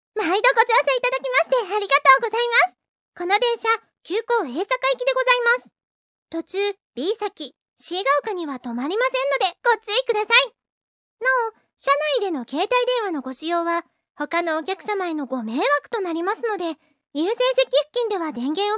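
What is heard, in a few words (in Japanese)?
高テンションで, 車内アナウンス的なもの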